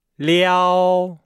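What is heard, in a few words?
"liao", Chinese; Nihao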